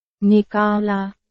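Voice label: Burmese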